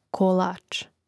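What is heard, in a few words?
"kolač", Croatian; Mrežnik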